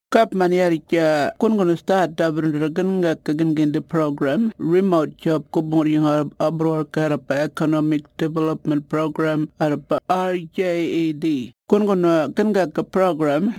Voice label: Audio message script Burarra; RAES and RJED digital resources